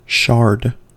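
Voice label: "shard", US English; pronunciations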